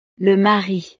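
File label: "le mari", French; familia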